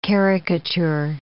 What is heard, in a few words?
"caricature", English